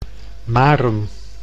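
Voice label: "Marum", Dutch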